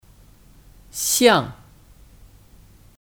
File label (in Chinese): "项", Xiàng; (Kata satuan ) : Kata satuan untuk projek, pekerjaan